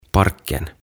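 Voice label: "Parken", "Puisto", Swedish, Finnish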